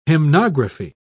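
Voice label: "hymnography", British English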